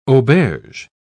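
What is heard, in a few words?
"auberge", British English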